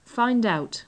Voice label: "find out", English

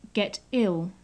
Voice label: "get ill", English

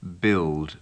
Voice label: "build", english

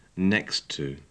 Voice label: "next to", English

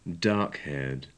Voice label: "dark-haired", English

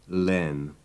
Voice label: "len", English

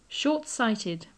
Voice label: short-sighted, english

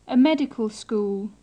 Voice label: a medical school, english

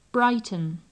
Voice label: "brighton", English

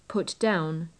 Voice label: "put down", English

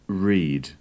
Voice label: "read", English

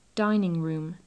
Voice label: dining room, english

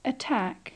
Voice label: "attack", english

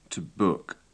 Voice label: to book, english

